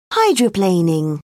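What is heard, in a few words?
"hydroplaning", British English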